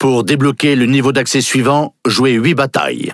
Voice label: Speech Access Level Unlock, FR; World Of Warships